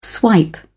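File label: "swipe", British English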